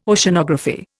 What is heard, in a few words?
"Oceanography", Indian